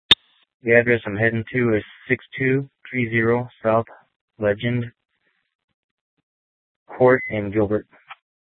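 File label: Sheriff, Parks, Sheriff radio scanner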